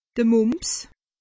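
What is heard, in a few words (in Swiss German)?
"mumps", Alsatian; medecine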